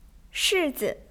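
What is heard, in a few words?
"shi4zi", Chinese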